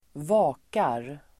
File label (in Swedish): "vakar", Swedish